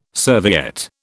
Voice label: "serviette", British